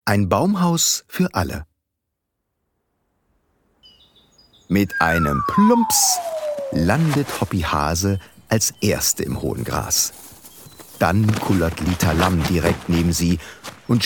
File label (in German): Kleinkindershorties